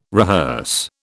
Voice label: "rehearse", British